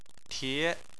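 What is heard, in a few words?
"tie", Chinese, second tone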